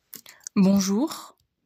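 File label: "Bonjour", French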